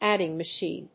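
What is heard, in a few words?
"adding machine", English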